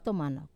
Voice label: "tomanau", already, Wamesa

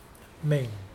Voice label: "left", Mokilese

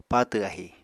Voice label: "patw ahi", Apatani

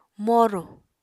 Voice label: "moru", Apatani

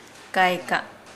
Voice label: "then", Nukuoro

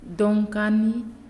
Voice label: "Man", Naasioi

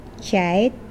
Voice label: Mokilese finger

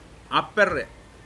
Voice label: "Shoulder", Pingelapese

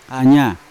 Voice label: "anya", Yawa; man; unat

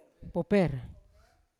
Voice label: "poper" white, Yawa

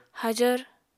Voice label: "hajvr", apatani

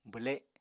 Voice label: "bwle", Apatani

